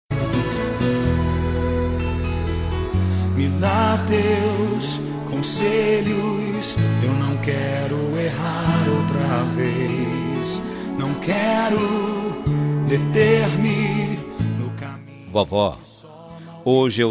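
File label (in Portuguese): DIA DAS MAES P AVO male, telemensagem; DIA DAS MAES P AVO MASC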